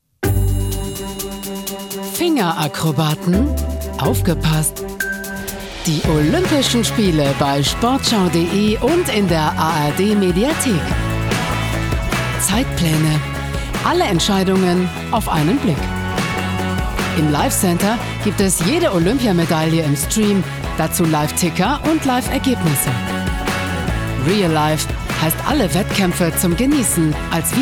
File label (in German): digitaltrailer